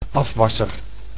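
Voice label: "afwasser", Dutch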